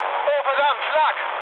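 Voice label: German damaged aa mood med, speech; War Thunder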